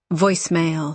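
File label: "voice mail", British English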